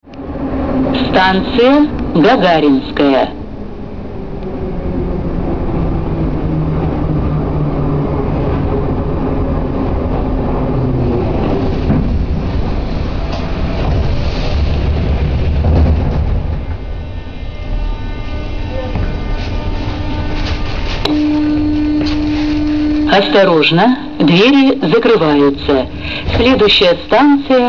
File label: female